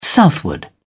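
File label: "southward", English, British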